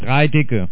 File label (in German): dreidick, male; falcon